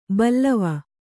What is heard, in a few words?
"ಬಲ್ಲವ", Kannada